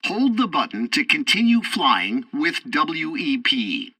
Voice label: tutorial fighter throttle, english; War Thunder